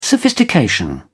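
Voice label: "sophistication", British English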